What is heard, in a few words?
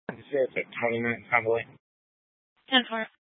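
Tempe police department, scanner; Rgnl Wireless Co-op (RWC)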